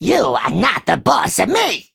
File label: Male Imp Play